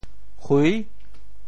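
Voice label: "hui6", Teochew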